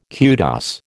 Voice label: "kudos", American